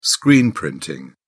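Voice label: "screen printing", British English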